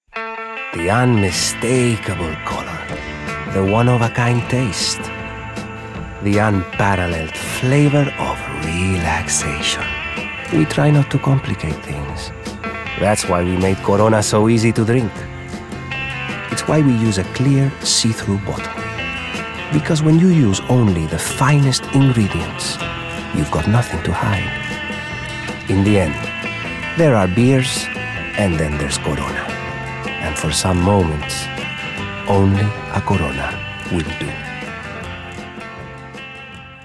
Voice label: Commercials (Spanish); Corona